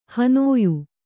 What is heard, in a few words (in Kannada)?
"ಹನೋಯು", Kannada